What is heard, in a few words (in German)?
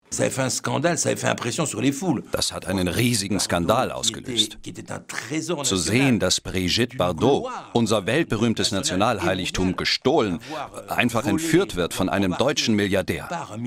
Dokumentation O-Ton; Promi-Paar